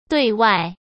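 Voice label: "对外", Mandarin Chinese; chinese-flashcards; hsk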